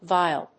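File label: "viol", English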